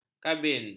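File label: "kabin", Malay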